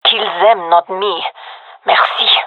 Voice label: taking fire friendly, fem; vo

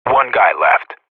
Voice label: radio bot kill count, vo professional; Counter Strike Model Pack; CS:GO

